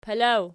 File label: "pelaw", Archi